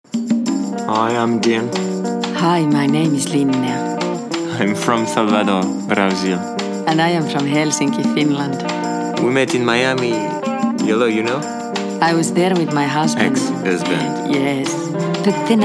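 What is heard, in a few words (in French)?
parodie pub en anglais, male; The koogar parodie pub the Kooples en anglais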